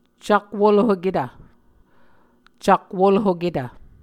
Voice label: "cakwolhoketv", muscogee

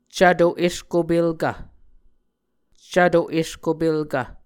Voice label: "cvto eskopelkv", muscogee

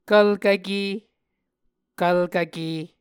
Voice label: "kvlkvke", Muscogee

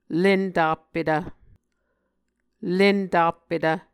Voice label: "lentappetv", Muscogee

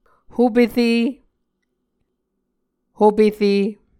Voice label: "hopere", Muscogee

